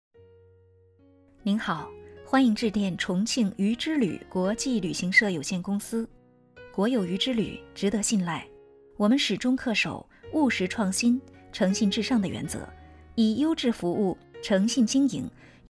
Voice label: 彩铃; 彩铃录制; 渝之旅

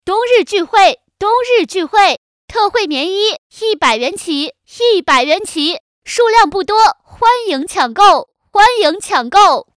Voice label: 女声促销

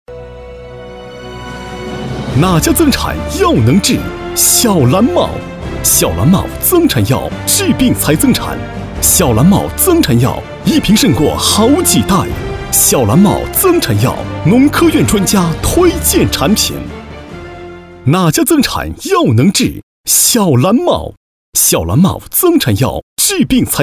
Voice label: 大气激情广告

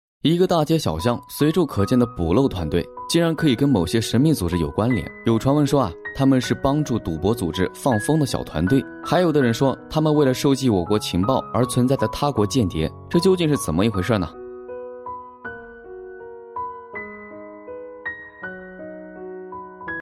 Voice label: 轻快讲述

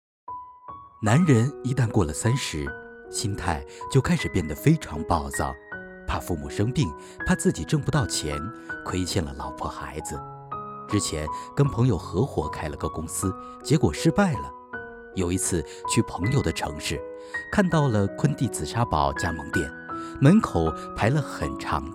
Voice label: 门店广告紫砂煲

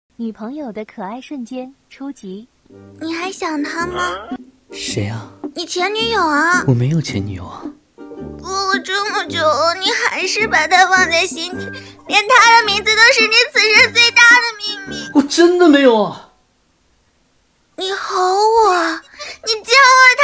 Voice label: 动漫活泼女试音

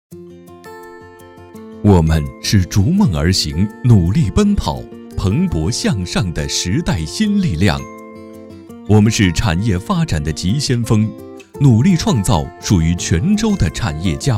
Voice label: 年会解说

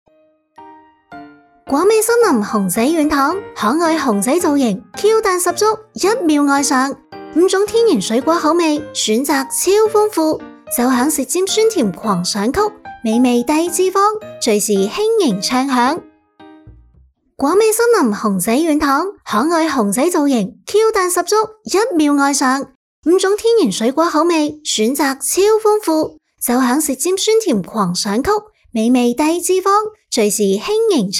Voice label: 粤语 广告; 粤语广告果味森林

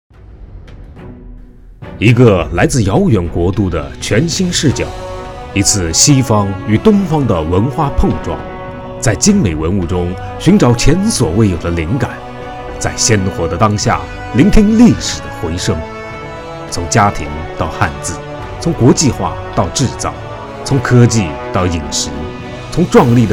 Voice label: 纪录片

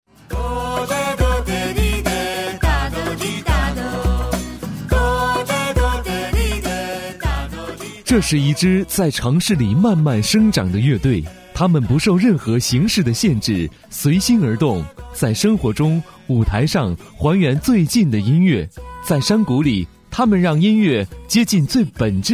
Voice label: 预告

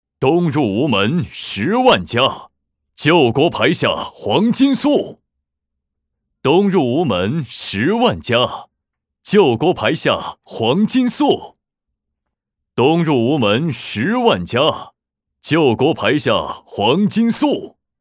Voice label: 武侠男; 派乐爆爆米花

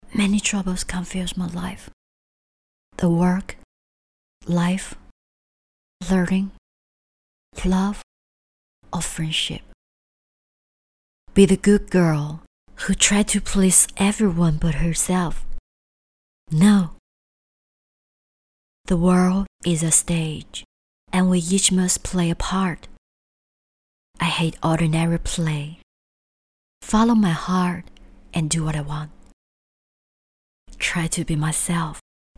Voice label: 英文; 高端女装

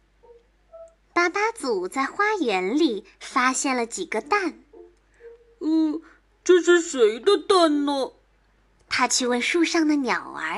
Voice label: 儿童故事, 女, 普通话; 儿童故事巴巴祖孵小鸡

